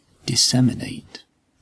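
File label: "disseminate", British English; pronunciations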